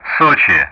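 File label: Speech Russian; Common Player Callsign Sochi; DCS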